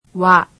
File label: "wa", japanese; alphabet